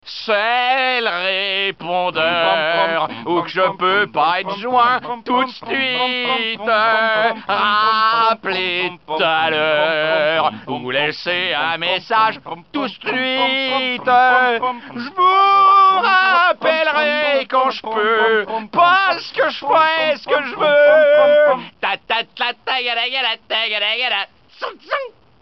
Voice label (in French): le chanteur, repondeurs